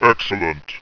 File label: "excellent", rumbly